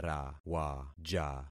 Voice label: "rawaja", concat syllables; Affixing experiment